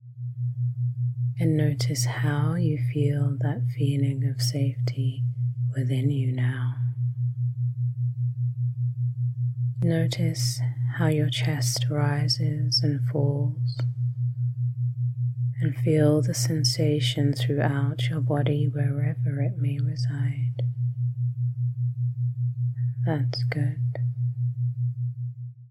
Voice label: Calming Visualisation